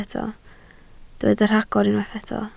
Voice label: "rhagor", Welsh

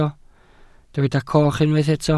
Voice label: "coch", Welsh

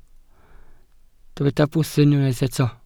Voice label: "bwthyn", Welsh

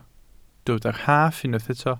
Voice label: "rhaff", Welsh

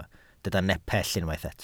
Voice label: "nepell", welsh

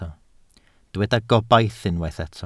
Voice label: "gobaith", Welsh

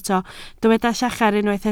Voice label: "llachar", Welsh

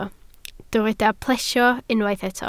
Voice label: "plesio", Welsh

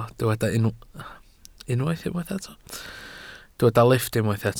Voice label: "lifft", Welsh

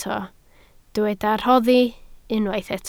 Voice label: "rhoddi", Welsh

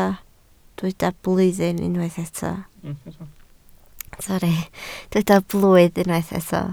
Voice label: "blwydd", Welsh

